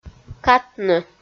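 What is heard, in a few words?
"катны", Komi, female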